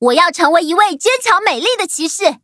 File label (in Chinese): levelup, zh-hant; cookie run